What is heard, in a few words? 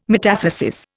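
"metathesis", English, Indian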